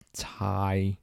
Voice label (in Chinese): "猜", 台城, 男